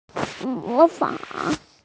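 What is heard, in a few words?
"mó fǎng", Mandarin Chinese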